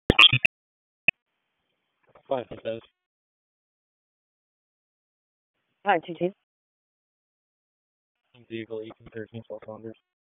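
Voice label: Police Southeast District Dispatch; Raleigh; wake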